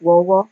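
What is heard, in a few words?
"wowo", Nigerian Pidgin, female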